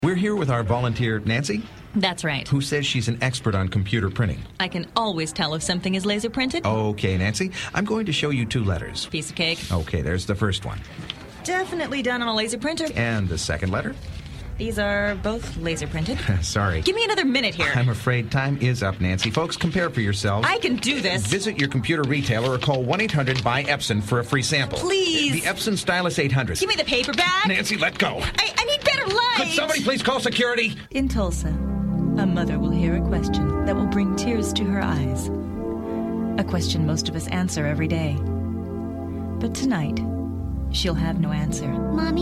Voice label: Commercial